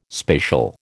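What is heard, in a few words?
"spacial", American English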